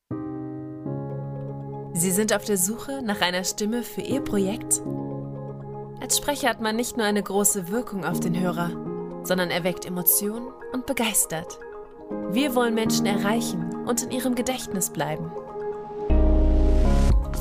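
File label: German, female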